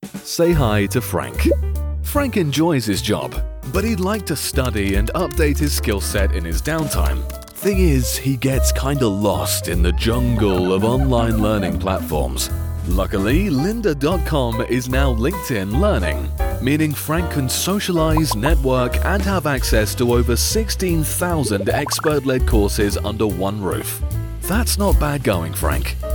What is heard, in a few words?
english british corporate videos, british voice actors